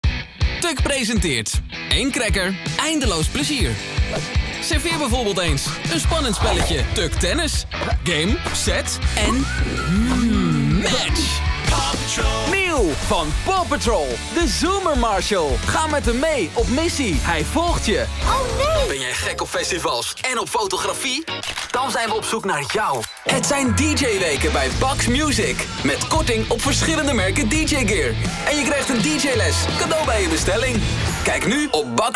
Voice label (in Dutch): stijl enthousiast